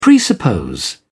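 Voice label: "presuppose", British English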